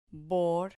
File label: "bor", Spanish